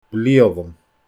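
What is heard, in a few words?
"blialam", Scottish Gaelic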